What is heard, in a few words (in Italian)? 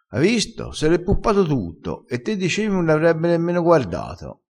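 "puppa", dialetto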